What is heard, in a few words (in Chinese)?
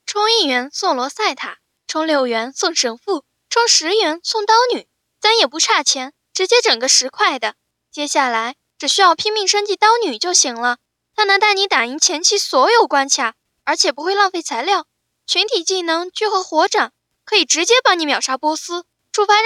游戏 配音